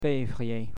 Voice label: Feb, French; Months